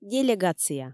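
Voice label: "делегация", Komi, female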